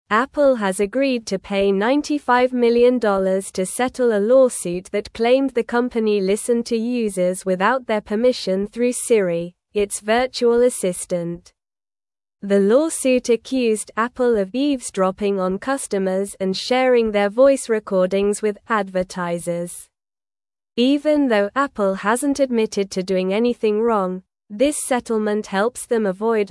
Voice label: English Upper Intermediate SLOW Reading; English Newsroom